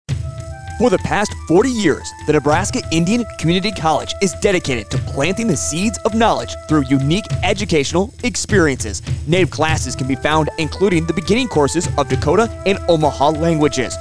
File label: Commercial; The NICC - DOC